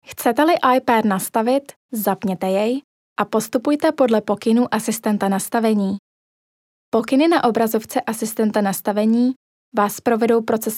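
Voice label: Czech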